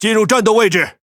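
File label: Speech Pilots Torpedo Engage, Chinese; World Of Warships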